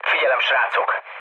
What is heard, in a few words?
near waypoint, mood low, speech hun; War Thunder